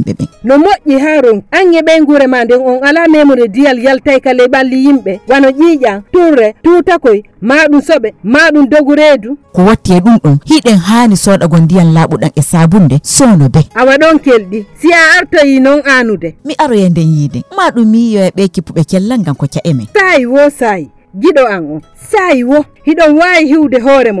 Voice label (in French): spot ebola pour femmes poular